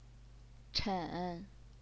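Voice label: "chen", third tone, Chinese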